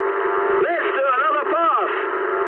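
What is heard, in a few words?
bomb failure mood medium, speech English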